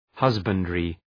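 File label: "husbandry", English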